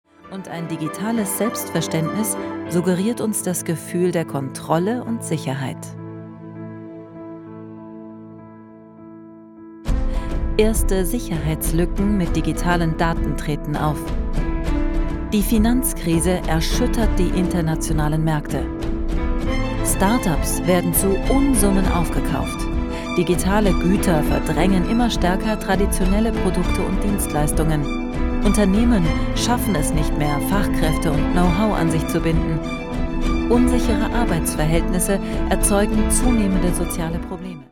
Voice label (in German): imagefilm; bertelsmann